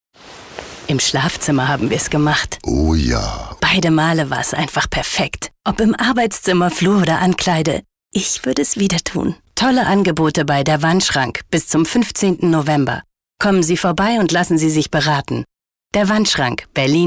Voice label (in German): Sinnlich; Fremdtake